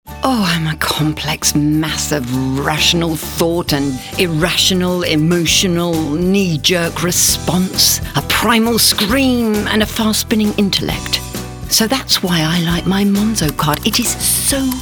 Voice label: Commercial; MONZO

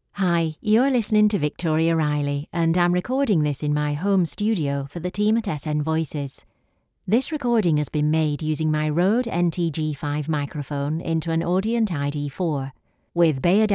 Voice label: HOME STUDIO SAMPLE

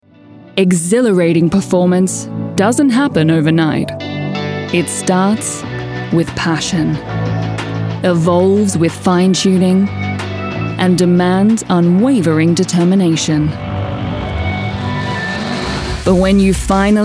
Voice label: Ad

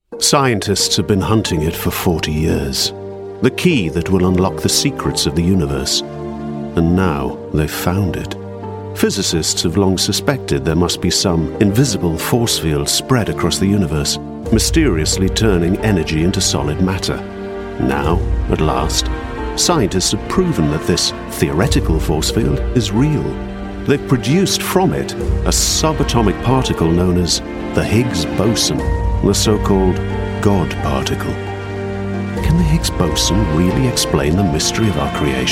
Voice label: Documentary

